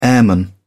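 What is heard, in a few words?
"airman", British English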